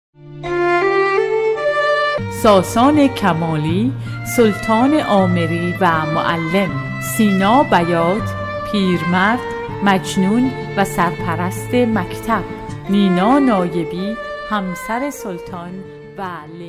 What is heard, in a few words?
farsi f commercial; voice demos